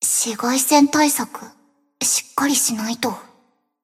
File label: Cafe Monolog; Iori; Swimsuit